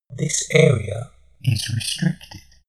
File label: shuttle male system status